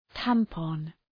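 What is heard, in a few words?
"tampon", English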